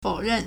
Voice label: "fou3 ren4", Chinese

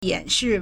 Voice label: "yan3shi4", Chinese

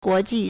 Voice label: "guo2ji4", Chinese

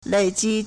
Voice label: "lei3ji4", Chinese

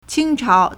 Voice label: "qing1 chao2", Chinese

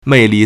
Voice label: "mei4li4", Chinese

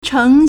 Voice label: "cheng2", Chinese

